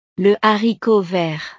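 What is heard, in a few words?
"le haricot vert", French; verduras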